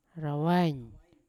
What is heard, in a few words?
"rawain" to curse, Yawa